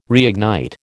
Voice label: "reignite", American

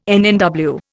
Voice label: "NNW", Indian

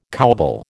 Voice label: "cowbell", American

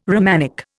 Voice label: "Romanic", Indian

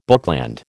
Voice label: "bookland", American

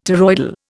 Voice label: "toroidal", Indian

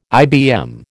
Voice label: "IBM", American

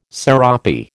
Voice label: "serape", American

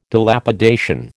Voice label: "Dilapidation", American

